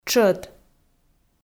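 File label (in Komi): "тшӧт", Komi, female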